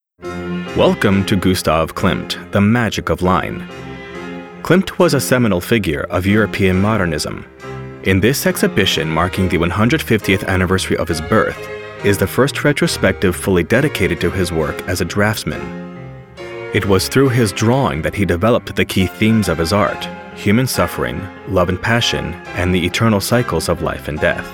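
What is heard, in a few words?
male voiceover; Kilmt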